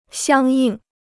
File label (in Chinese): "相应", Chinese